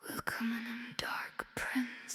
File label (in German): wisper Willkommen im Dark Prince